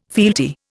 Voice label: "fealty", Indian